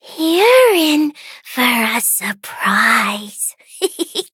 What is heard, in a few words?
battle, en; cookie run